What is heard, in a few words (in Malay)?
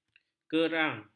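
"kerang", Malay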